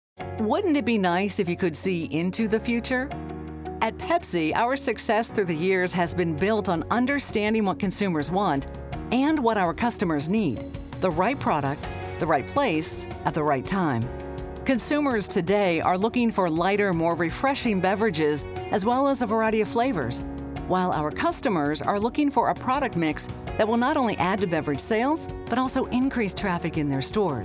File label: narrative